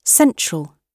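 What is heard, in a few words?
"central", British English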